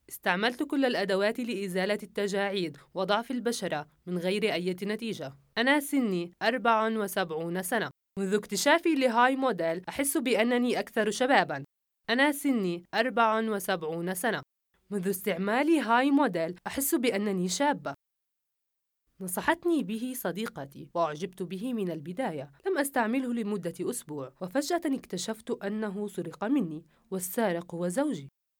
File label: Arabic female